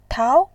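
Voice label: "tao", Mandarin Chinese, second tone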